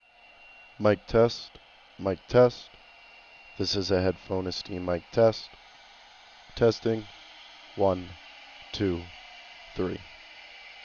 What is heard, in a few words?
HS70 Mic Noisy Environment